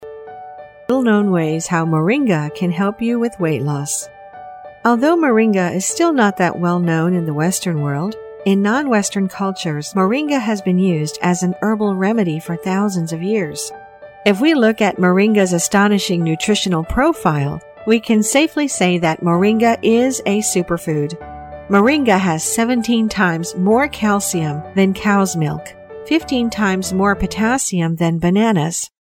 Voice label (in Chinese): 外籍女英 外语 美式英语 轻松 轻松